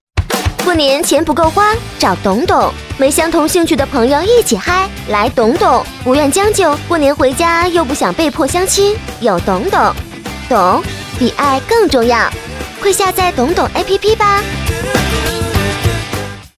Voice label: 女国 广告 互联网 app 可爱; 懂懂app

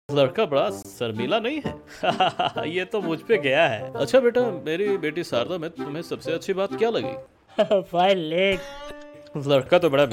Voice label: 印地语男 外语 小语种

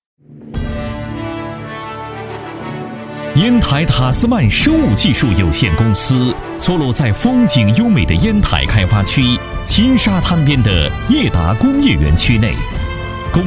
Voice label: 专题 企业 沉稳; 塔斯曼公司

